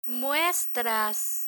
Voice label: "muestras" Spanish; verb mostrar conjugation; learn spanish

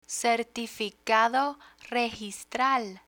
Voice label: "certificado registral", Spanish; real estate terminology; learn spanish

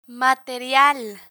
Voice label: "material", Spanish

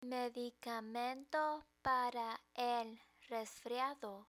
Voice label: medicamento para el resfriado, Spanish; learn spanish

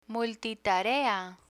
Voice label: "multitarea", Spanish; technology; learn spanish

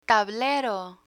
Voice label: "tablero", Spanish; picture dictionary

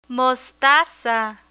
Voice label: "mostaza" Spanish; travel menu; learn spanish

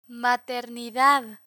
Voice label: "maternidad", Spanish; medical medicine vocabulary; learn spanish

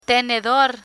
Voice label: "tenedor", Spanish; kitchen vocabulary

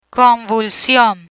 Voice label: "convulsion", Spanish

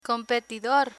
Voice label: "competidor", Spanish

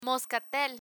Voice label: "moscatel" Spanish; learn spanish

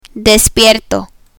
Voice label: "despierto", Spanish

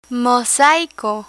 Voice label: "mosaico" Spanish; learn spanish

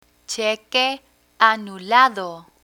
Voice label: "cheque anulado", Spanish; banking vocabulary

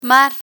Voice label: "mar", Spanish; learn spanish

